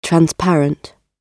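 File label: "transparent", British English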